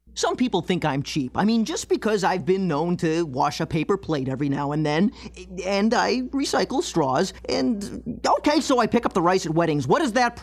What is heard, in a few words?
commercial